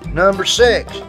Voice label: "number six", male; Voice; numbers and letters